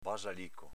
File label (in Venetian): "basalico", Veneto